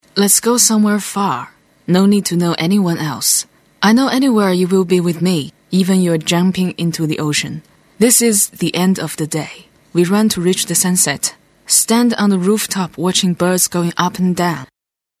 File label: Chinese English